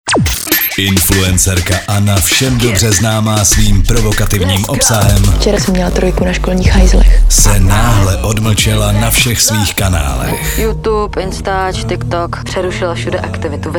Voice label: liner; anna is missing